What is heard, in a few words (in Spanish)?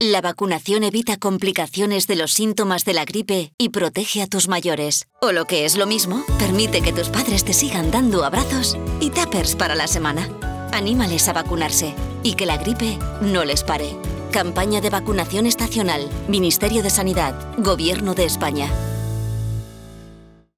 Castellano; Cuna Jovenes